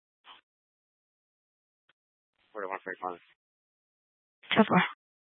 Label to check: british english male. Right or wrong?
Wrong